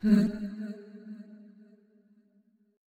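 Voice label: "Huh", voice; VO yami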